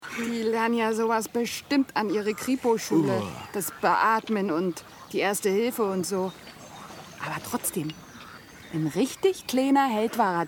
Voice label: hoerspiel

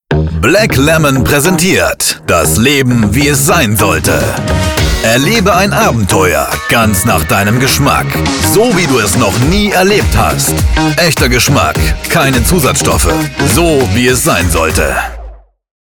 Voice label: Werbung; Black Lemon

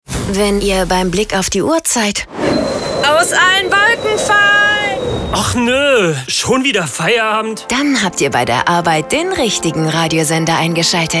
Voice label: Stationvoice Mehr Musik Versprechen; RHH

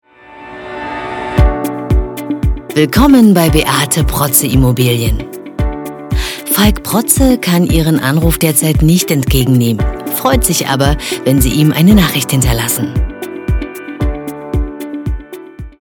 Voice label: Telefon Mailbox; LOFT VOICES

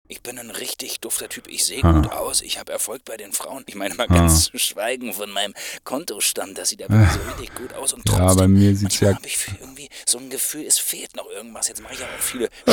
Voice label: SPOT

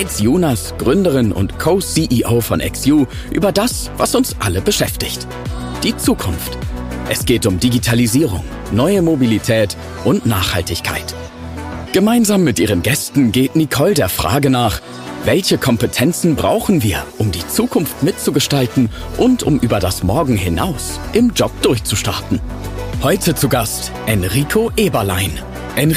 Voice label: DEUTSCH Podcast; Beyond-Tomorrow-Podcast

